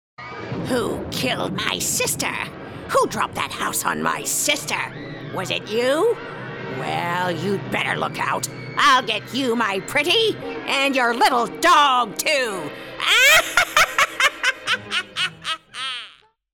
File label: Wicked Witch